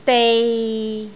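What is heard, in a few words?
"dei6", Cantonese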